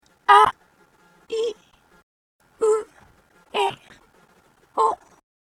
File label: garageband voice trans f